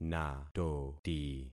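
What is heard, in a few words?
"natoti", concatenated syllables; Affixing experiment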